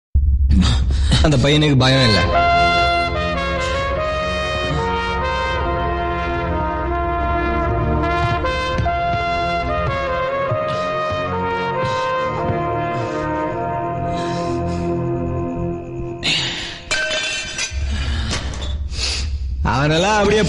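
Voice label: mass dialogue ringtone background music; pollathavan; vetrimaaran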